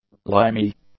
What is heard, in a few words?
"limy", english; adjectives